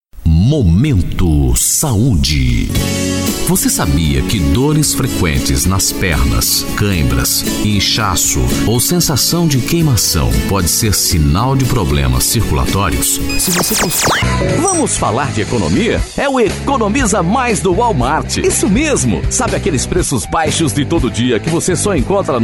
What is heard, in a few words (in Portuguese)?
masculinas tom